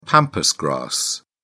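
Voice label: "pampas grass", British English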